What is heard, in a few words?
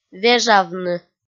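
"вежавны", Komi, female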